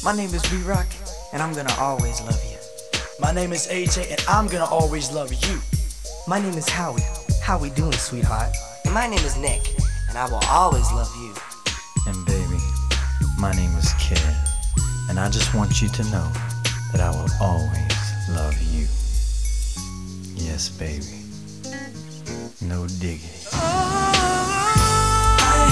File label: conversation